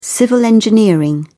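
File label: "civil engineering", British English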